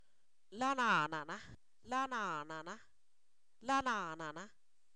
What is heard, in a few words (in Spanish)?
bastante sólido, Zapoteco, san miguel albarradas; adverbios